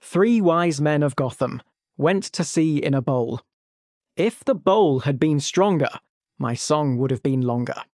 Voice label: male brit; Three Wise Men of Gotham

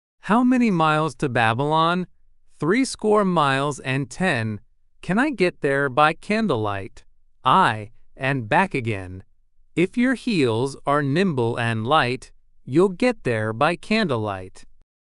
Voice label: male US, classic rhymes; How Many Miles to Babylon

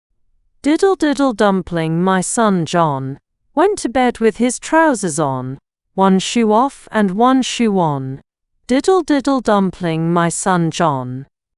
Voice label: fem brit; Diddle Diddle Dumpling

